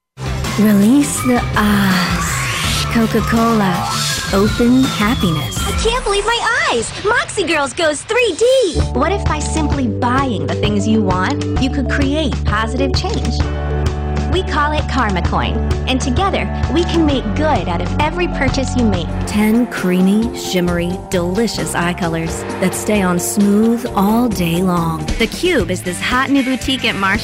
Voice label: Commercial

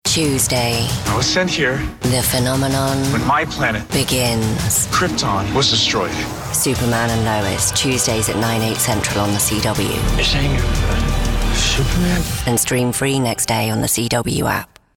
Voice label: en-US; superman

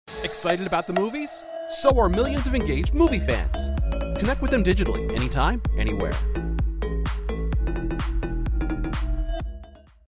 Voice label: spot; NCM

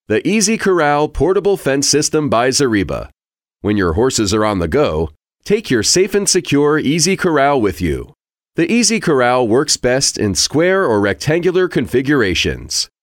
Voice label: explainer

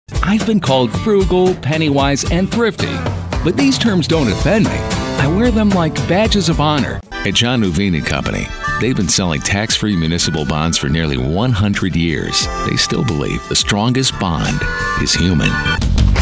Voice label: Commercial english, en-US

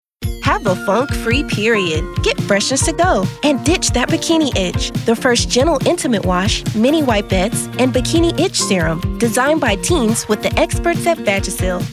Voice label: Voice Actor, en-GB

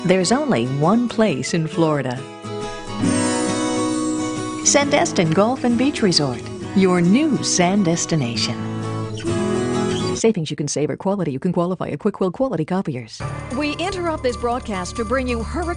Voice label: Voice Actor

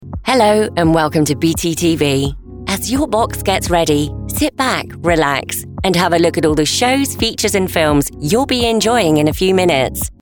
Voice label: Promo, en-US

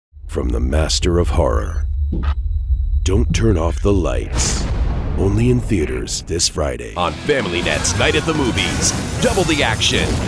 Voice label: Cinema Trailer, en-US

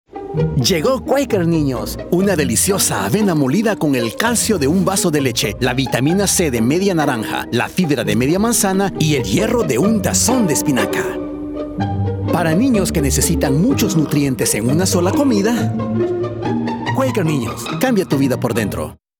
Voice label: SPANISH; Quaker

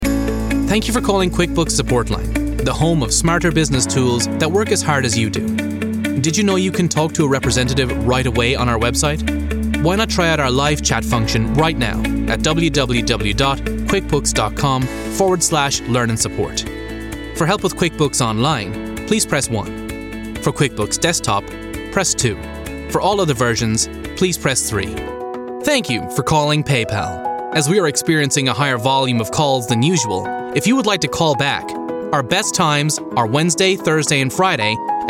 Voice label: IVR, en-US

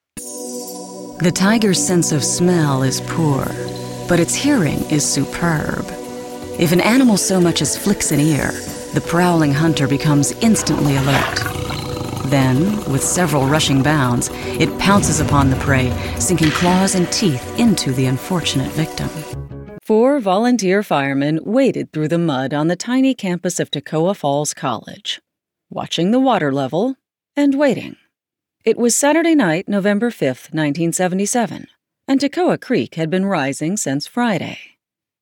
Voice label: Documentary VO

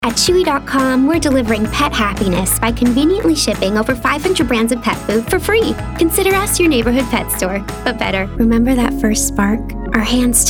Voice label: Commercial VO, en-GB

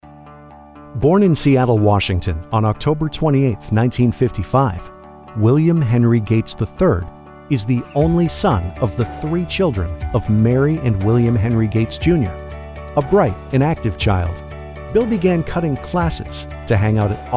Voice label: Narration, standard us; english; commercial; corporate narration; documentary

